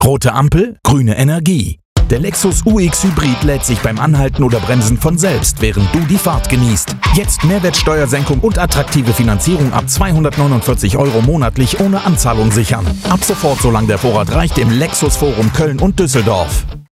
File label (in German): Radiospot